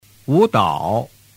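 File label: "wudao" Chinese; giao trinh 301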